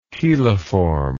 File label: "cheliform", English